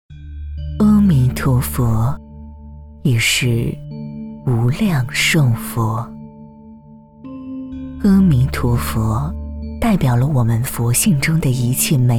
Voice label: 女, 纪录片; 佛教

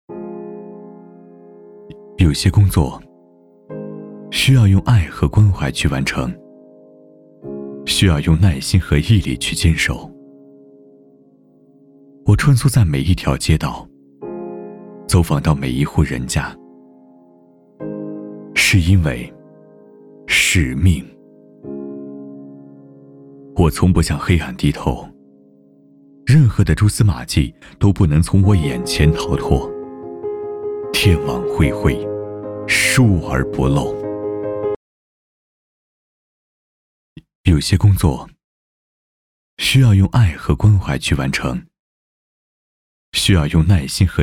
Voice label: 公益专题, 男; 新安集派出所

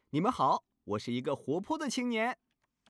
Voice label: 男 角色 活泼青年男

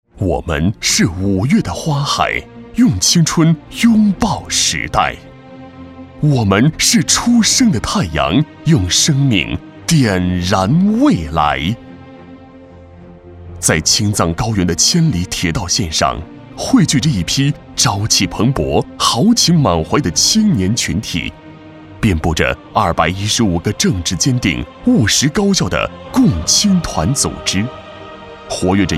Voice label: 男 大气温情专题片; 《青藏铁路共青团》

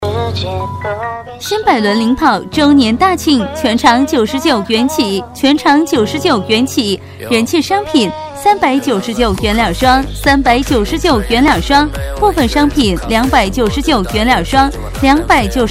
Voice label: 女 促销 配乐版; 新百伦领跑2

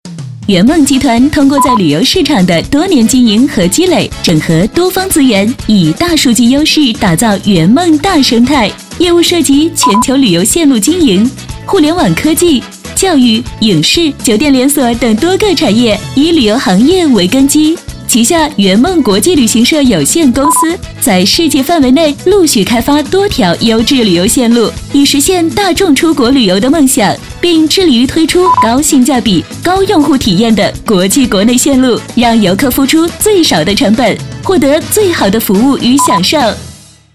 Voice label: 女, 宣传片; 圆梦集团

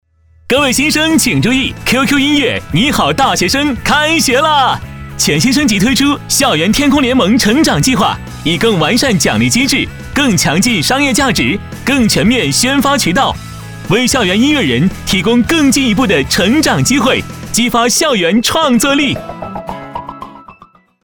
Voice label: C男 青春 活力广告; QQ音乐

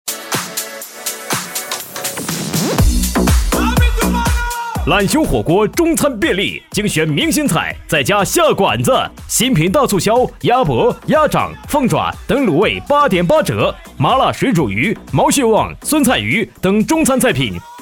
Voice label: C男, 激情促销; 懒熊火锅

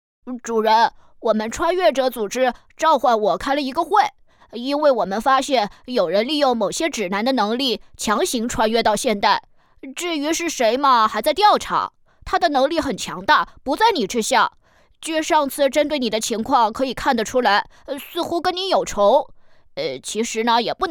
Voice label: 粤语讲解 健康知识科普; HPV

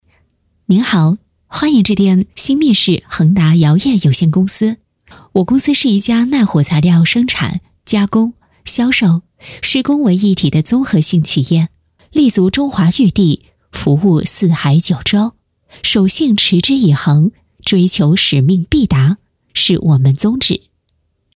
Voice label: 彩铃 温馨广播, 女

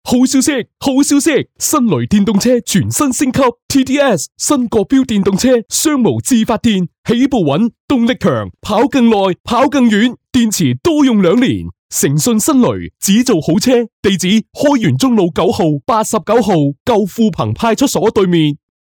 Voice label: 粤语 电动车门店宣传, 男

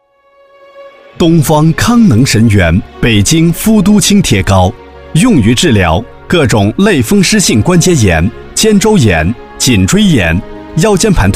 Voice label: 男 促销 贴膏; 北京夫都清贴膏

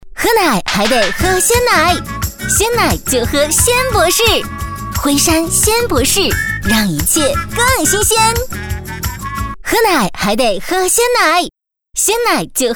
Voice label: 女, 广告 活力广告; 辉山鲜博士

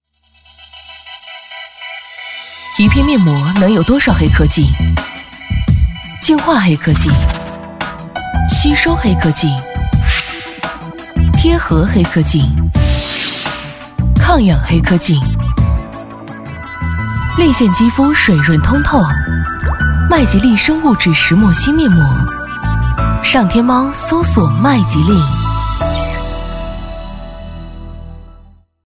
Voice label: 女 TVC广告 冷淡自然; 麦吉丽生物质石墨烯面膜

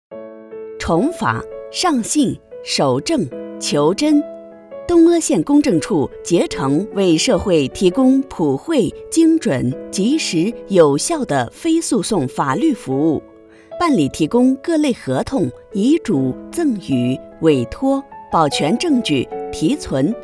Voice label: 女 彩铃 稳重专题彩铃

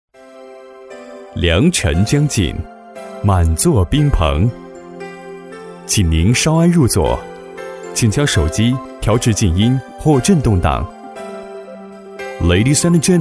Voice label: 男 英语 中英播报; 良辰将近

